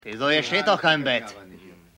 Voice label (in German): Hauptsprecher uebertoent; Charley; Maennerwirtschaft